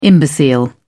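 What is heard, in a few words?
"imbecile", British English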